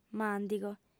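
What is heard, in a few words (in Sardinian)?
"màndigo", female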